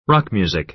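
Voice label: "rock music", American English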